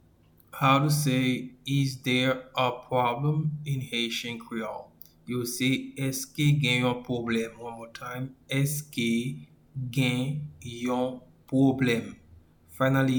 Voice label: Is there a problem in Haitian Creole Eske gen yon pwoblem pronunciation